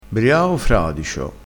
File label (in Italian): "briao fradicio", crespinese